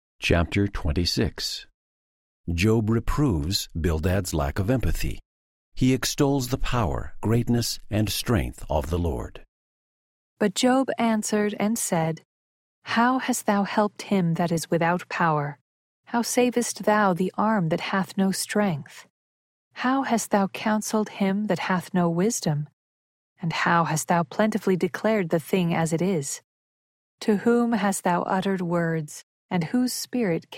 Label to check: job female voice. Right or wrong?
Right